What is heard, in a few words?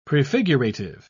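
"prefigurative", English, British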